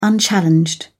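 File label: "unchallenged", British English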